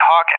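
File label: "Hawk", English, USA; AirDefence; DCS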